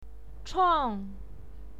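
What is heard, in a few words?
"chuang", Mandarin Chinese, fourth tone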